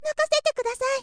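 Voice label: girl, "makasetekudasai"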